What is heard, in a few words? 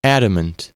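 "adamant", US English; pronunciations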